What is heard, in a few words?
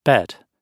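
"bed", British English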